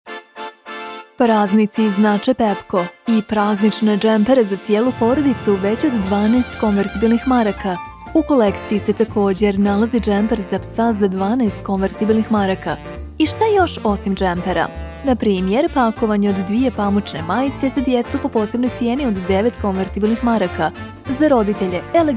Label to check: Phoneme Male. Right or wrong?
Wrong